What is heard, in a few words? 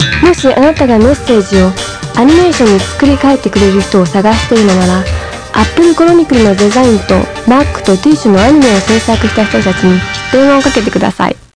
Japanese mix; JapaneseAd2